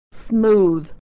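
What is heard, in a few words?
"smooth", American English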